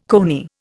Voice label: "coney", Indian